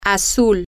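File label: blue, Spanish, female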